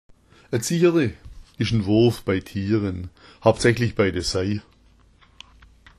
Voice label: zicherte, schwaebisch

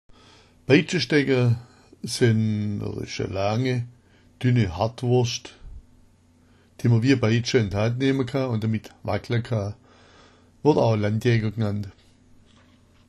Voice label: peitschstecka, schwaebisch

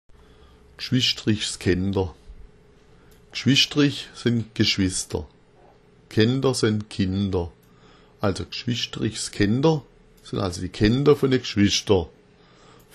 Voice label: gschwistrich, schwaebisch

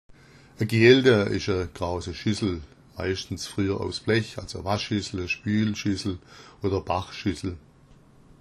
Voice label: gealda, schwaebisch

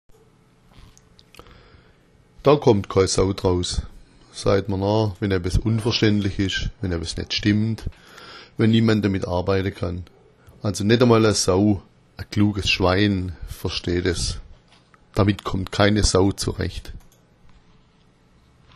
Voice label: sau draus, schwaebisch

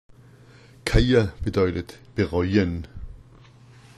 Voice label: "kheia", schwaebisch

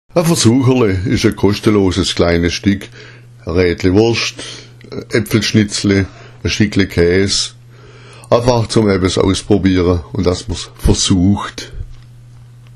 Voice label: "versucherle", schwaebisch

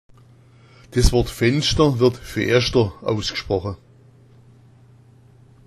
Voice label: feaschter, schwaebisch

